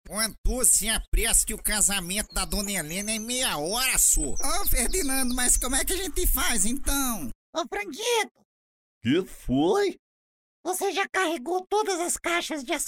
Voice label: portuguese brazilian animation

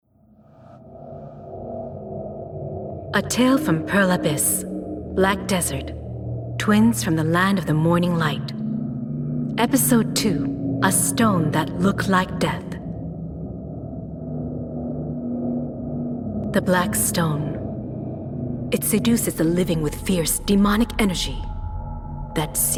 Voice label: english audiobooks

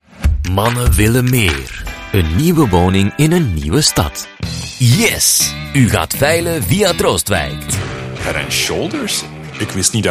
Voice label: Flemish commercial

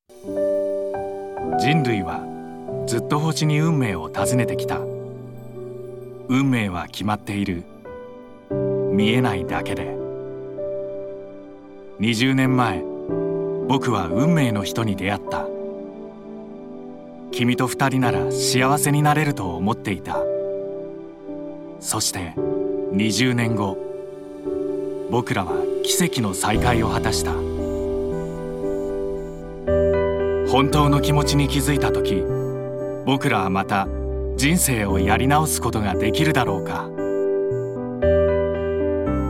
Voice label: japanese movie trailers

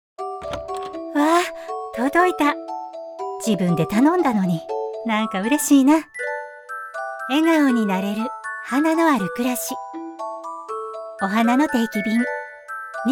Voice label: Japanese

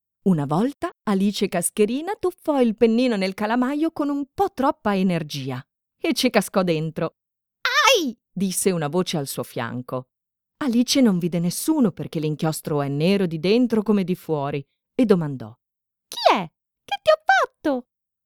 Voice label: italian audiobooks

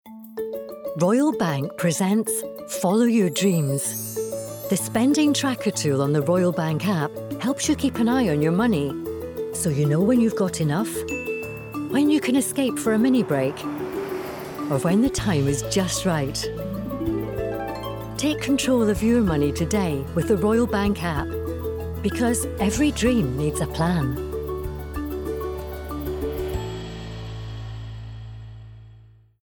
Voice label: english british corporate videos

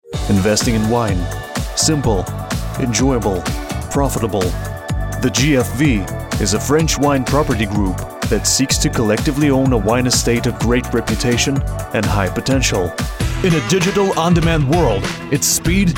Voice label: english commercial

